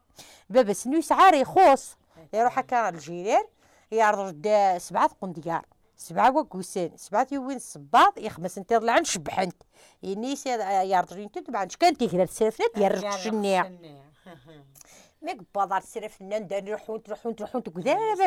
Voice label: Kabyle; Mettouchi et al